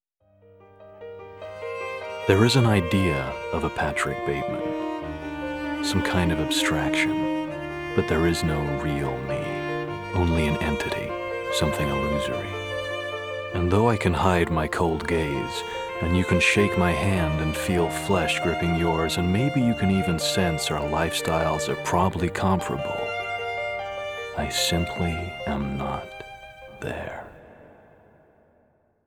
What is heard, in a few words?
Monologue; American Psycho (soundtrack)